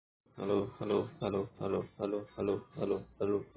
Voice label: Voice Note